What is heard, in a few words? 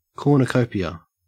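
"cornucopia", Australian English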